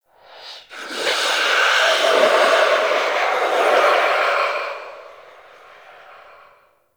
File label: Attack Vocal; Warframe; Stalker Acolyte